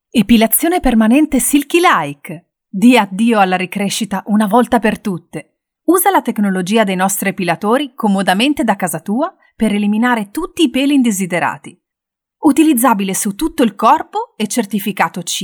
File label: Italian, Female